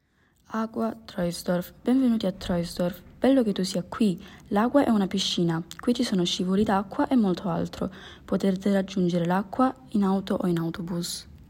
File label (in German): italienisch; Aggua